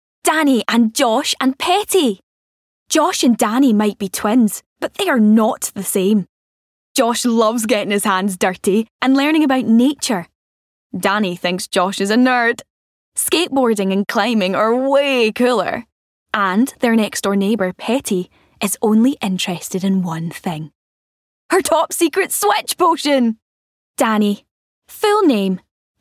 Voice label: Audiobook